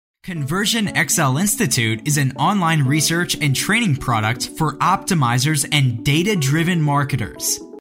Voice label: male professional